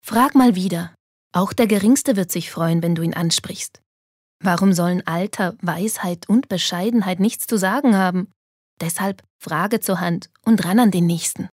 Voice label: Werbung Sanft

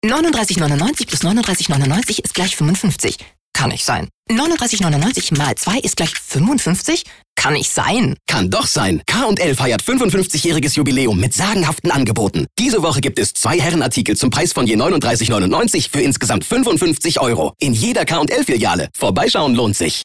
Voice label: Energisch

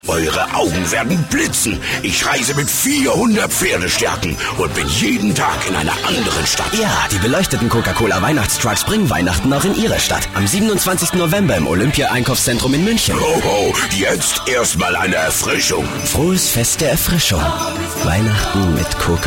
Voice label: Werbung Dynamisch

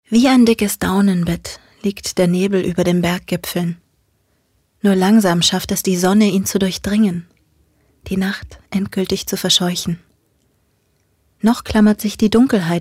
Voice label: Schauspiel, Sanft

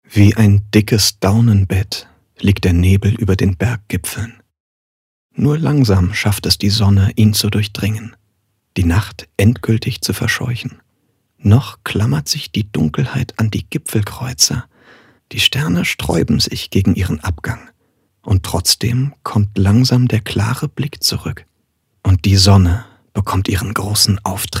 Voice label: Schauspiel Sanft

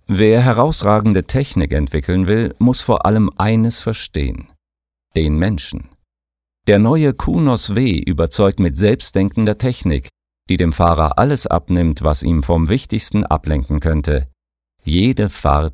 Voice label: Kraftvoll; Cunos

